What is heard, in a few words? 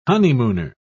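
"honeymooner", British English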